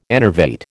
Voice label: "enervate", American